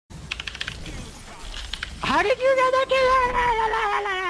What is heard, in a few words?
freaks out; killsteal